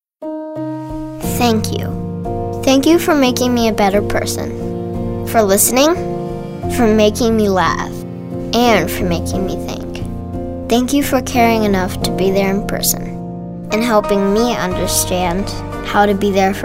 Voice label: thoughtful